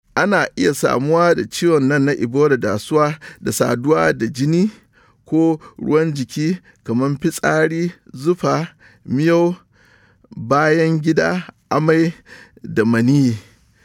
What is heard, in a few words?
Hausa Message, radio spots; PSA; ebola; vhf